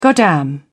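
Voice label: "goddamn", British English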